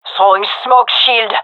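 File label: throwing smoke, gendarmerie female; vo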